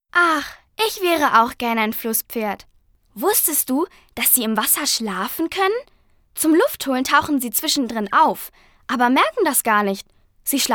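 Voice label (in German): Mädchen; Was is Was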